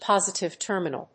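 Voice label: "positive terminal", English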